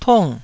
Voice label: "tung4", Taiwanese

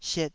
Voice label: "siet2", Taiwanese syllable

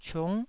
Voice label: "ciung9", Taiwanese

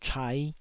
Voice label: "chai5", taiwanese

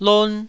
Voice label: "lon1", Taiwanese syllable

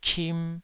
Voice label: "chim2", taiwanese

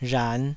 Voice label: "rhan5", taiwanese

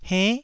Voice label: "henn9", taiwanese

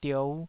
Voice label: "diou5", taiwanese syllable

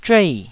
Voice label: "zhuei4", Taiwanese syllable

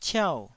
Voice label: "ciau4", taiwanese

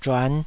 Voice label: "zhuan5", Taiwanese syllable

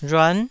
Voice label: "rhuan9", taiwanese

